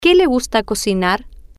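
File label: Que Le Gusta Cocinar, Spanish, female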